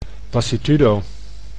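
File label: "lassitudo", Dutch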